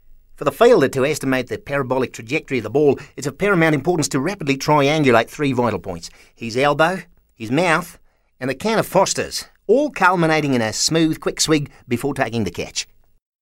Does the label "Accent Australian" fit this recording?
Yes